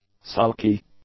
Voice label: "sulky", English; adjectives